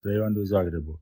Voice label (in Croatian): romski; POP UP Zagreb